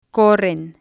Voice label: "corren", spanish

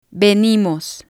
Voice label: "venimos", Spanish

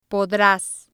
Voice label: "podras", spanish

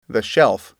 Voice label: "shelf", English

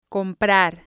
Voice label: "comprar", spanish

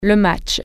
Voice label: game, French

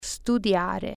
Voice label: study, italian

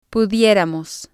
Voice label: "pudieramos", spanish

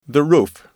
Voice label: "roof", English

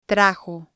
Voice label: "trajo", Spanish

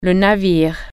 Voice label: ship, french

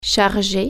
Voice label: charge, french

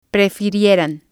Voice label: "prefirieran", spanish

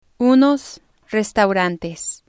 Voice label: "unos restaurantes", Spanish

